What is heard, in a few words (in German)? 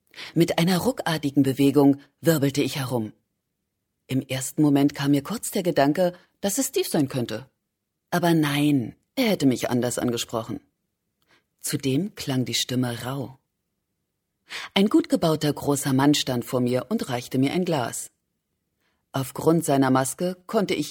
hoerbuch